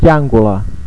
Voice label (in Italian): "chjancula", pronuncia, dizionario carigliaro; chjàngula